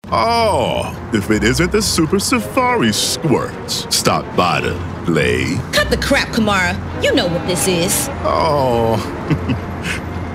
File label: character, voiceover